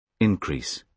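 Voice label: "increase", British English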